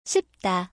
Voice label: "ssipda", Korean word

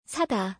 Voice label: korean buy sada

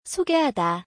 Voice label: "sogaehada", Korean word

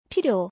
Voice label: "pilyo", Korean

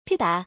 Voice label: "pida", Korean word

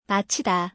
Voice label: "machida", Korean

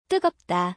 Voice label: korean hot

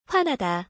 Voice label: "hwanada", Korean

